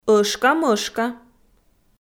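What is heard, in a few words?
"ӧшкамӧшка", Komi, female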